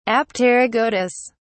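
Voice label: "apterygotous", British English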